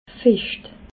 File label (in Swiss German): "fecht", alsace